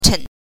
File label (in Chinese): "chen", pinyin